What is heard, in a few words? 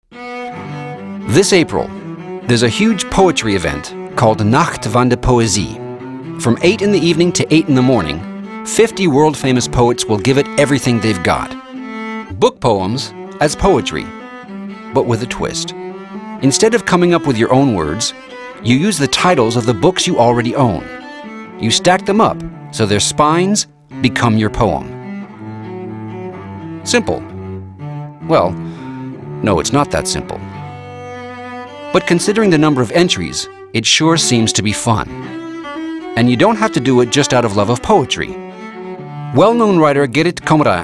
male English USA; nacht poezie